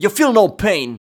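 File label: vocal male, Dance Vocals, spoken words; Best Service ProSamples vol.12; AKAI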